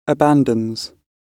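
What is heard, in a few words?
"abandons", British English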